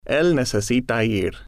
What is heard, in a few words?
"el necesita ir", Spanish